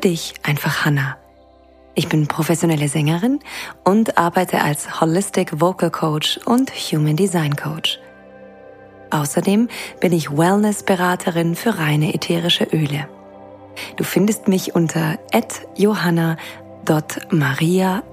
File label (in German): kurze Meditation zum Abschalten und Durchatmen; quickfix; Ruhe und Kraft; Meditation für alle Lebenslagen; Julep Studios; Entspannung